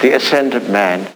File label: LoFi Phrases, LoFi Vocals; Vengeance Samples